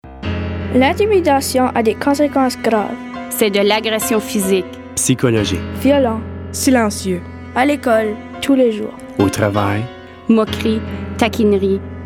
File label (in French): Publicite sensibilisation; Cycle de la violence